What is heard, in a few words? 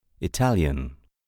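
"italian", English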